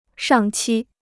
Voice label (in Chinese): "上期", Chinese